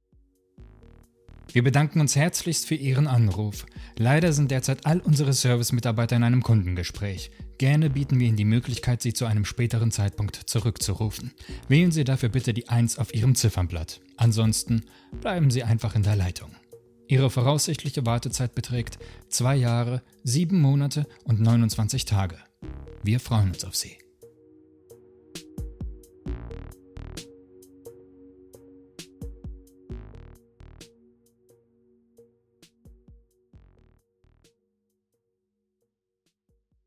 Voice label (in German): Telefondurchsage